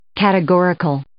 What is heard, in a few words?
"categorical", English